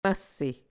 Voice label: "vsse", Muscogee; thee-in-andere-talen

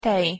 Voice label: "tej", Nedersorbisch; thee-in-andere-talen

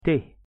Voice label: "té", Waals; thee-in-andere-talen

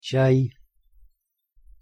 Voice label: "чай", Udmurt; thee-in-andere-talen

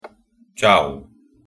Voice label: "ciau", Roemeens Romani; thee-in-andere-talen

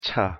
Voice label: "차", Koreaans; thee-in-andere-talen

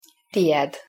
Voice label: "tied", Volapük; thee-in-andere-talen

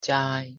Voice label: "ჩაი", Mingreels; thee-in-andere-talen

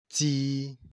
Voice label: "tii", Igbo; thee-in-andere-talen

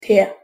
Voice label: "te", IJslands; thee-in-andere-talen

